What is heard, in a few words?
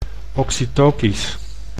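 "oxytocisch", Dutch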